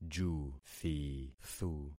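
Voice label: "juthithu", concatenated syllables; Affixing experiment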